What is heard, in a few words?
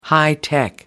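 "hi-tech", English, British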